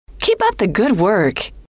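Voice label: keep up the good work, female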